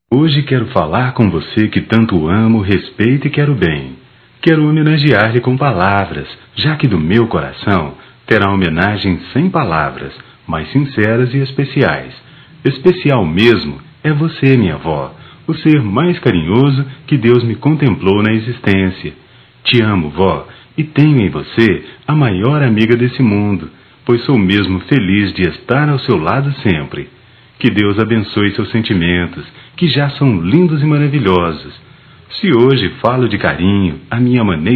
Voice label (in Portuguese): Para avó, masc; Dia das Maes; Telemensagens 2017